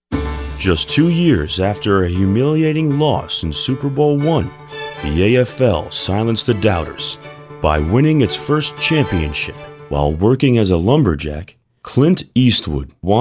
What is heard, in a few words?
Narration